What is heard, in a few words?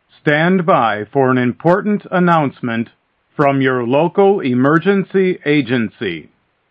Emergency Pre-announcement